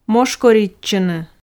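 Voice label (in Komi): "мошкоритчыны", Komi, female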